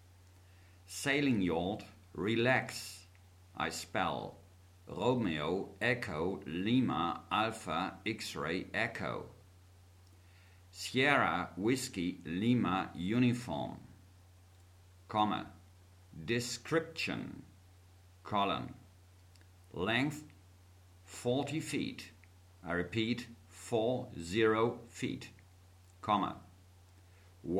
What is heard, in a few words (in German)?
Seefunktext